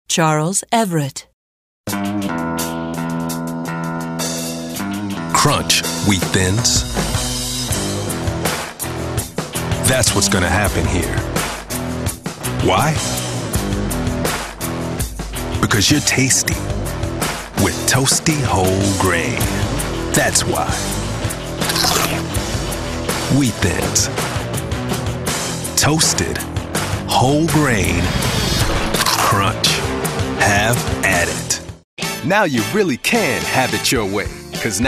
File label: commercial, men